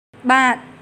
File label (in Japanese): はい 男